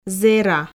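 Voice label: "зэра", Komi, female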